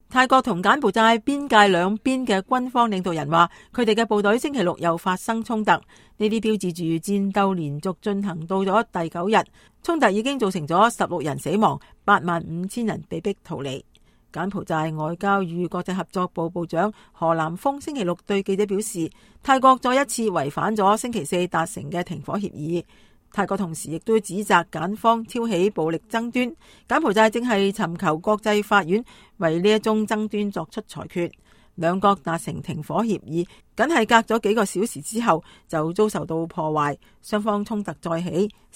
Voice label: Cantonese News Thailand Cambodia